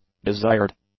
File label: "desired", english; adjectives